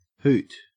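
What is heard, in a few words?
"hoot", Australian English; pronunciations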